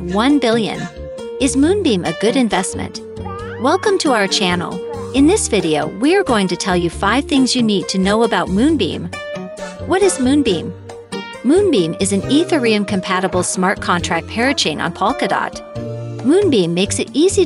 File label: English Voice Over Sample